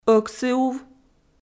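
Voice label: "ӧксыув", Komi-Zyrian, female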